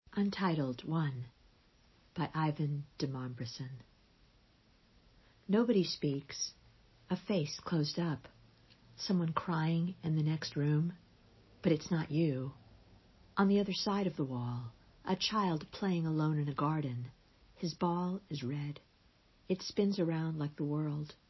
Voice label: listen to the poem in Russian